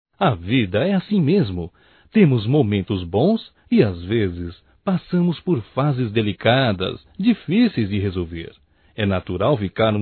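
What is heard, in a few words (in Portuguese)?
Agradecimento pelo apoio male, Só Voz; Telemensagens; AGRADECIMENTOS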